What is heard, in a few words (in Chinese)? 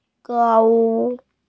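"gu3zhang3", Mandarin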